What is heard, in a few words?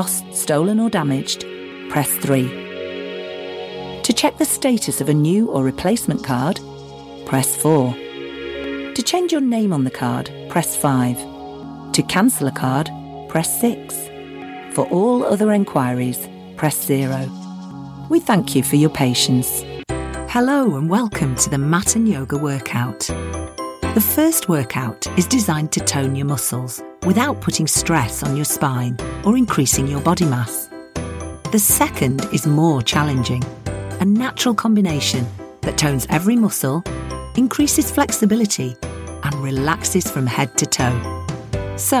announcer phone messages IVR